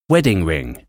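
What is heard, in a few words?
"wedding ring", English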